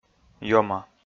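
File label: "ёма", Komi, male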